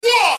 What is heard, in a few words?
wah group of men; ooh ahh